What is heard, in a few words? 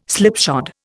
"slipshod", Indian